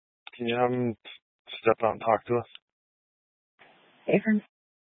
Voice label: Police Department Dispatch; Grand Junction